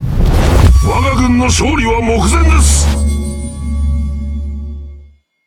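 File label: Speech Domination Ally Close Win, Japanese; World Of Warships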